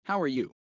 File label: fast; studying abroad